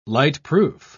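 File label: "lightproof", English, British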